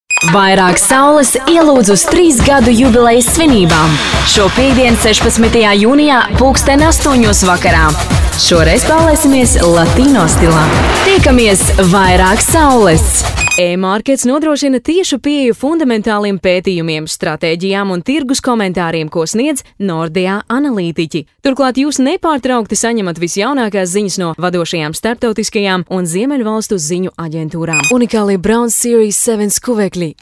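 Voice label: Latvian female commercial; voice demos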